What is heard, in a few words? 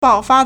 "bao4fa1", Chinese